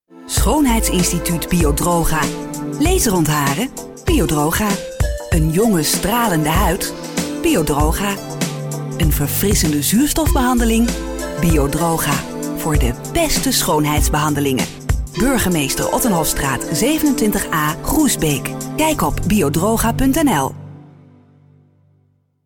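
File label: Dutch, female, commercial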